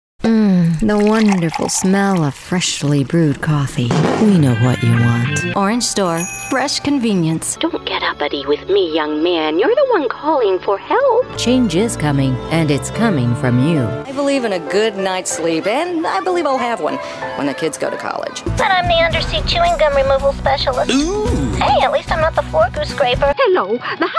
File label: Commercial